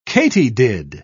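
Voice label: "katydid", British English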